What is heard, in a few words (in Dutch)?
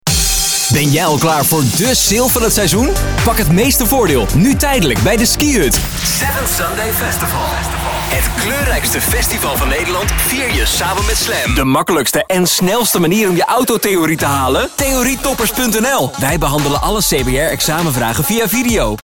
TONE OF VOICE ENTHOUSIAST